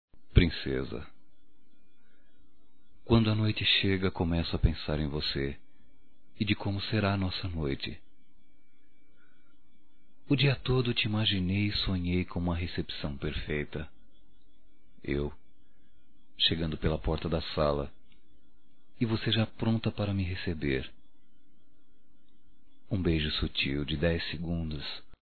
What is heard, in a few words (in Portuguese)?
ERÓTICA - M; Românticas